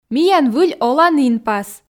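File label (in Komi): миян выль олан инпас, Komi, female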